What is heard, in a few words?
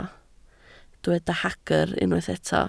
"hagr", Welsh